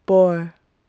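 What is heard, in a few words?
"bore", English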